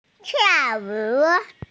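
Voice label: "tiao4wu3", Mandarin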